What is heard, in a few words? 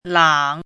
"lang3", pinyin